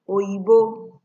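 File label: "oyibo", Nigerian Pidgin, female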